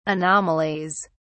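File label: "anomalies", British English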